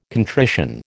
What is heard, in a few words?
"contrition", American